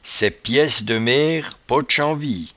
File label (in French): "saipiaicedemere", patois jura